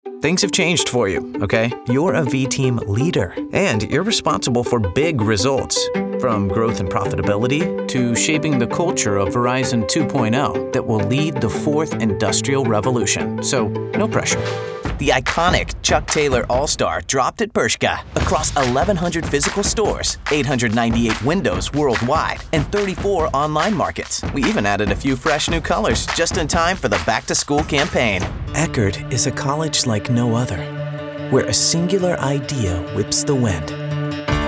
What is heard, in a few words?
American voice corporate